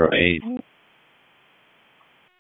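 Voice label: Police District Dispatch; wake; p25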